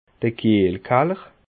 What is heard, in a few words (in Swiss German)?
"kiehlkaller", alsace